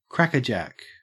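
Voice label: "crackerjack", Australian English; pronunciations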